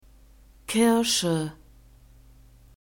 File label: "kirsche", german; fruits